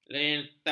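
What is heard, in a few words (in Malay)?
"leotad", Malay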